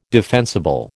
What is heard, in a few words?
"defensible", American